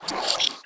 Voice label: Wraith Whispers; Playable Tutorial Level